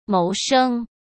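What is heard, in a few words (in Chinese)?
"谋生", Mandarin Chinese; chinese flashcards; hsk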